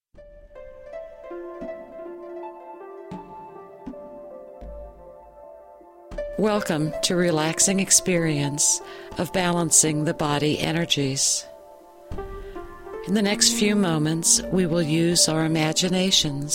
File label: Guided Imagery